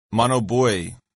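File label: "monobuoy", English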